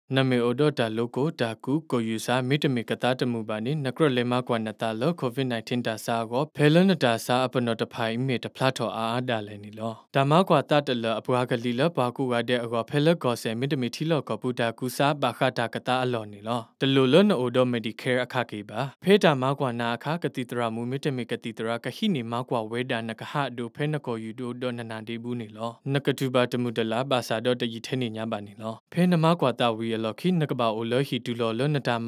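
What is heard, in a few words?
m Karen VO, male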